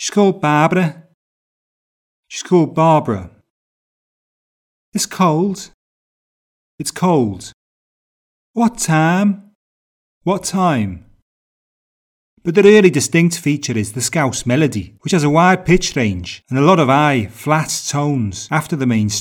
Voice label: Liverpool accent intonation